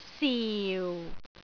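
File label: "siu4", Cantonese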